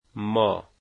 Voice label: we, dari